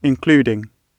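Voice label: "including", British English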